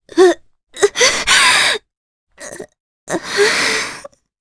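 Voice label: Vox Sad, Japanese; Veronica; kingsraid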